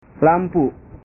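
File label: "lampu", Indonesian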